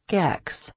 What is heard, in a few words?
"kecks", Reino Unido; girias